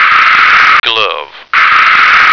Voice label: "glove", American